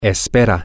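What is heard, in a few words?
he hopes, spanish, male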